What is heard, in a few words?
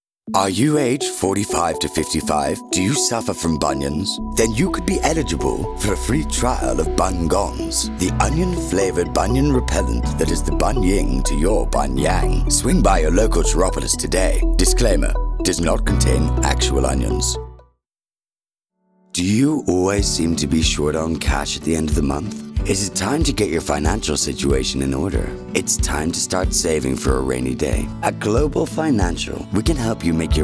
Audition Commercial, Irish voice over artist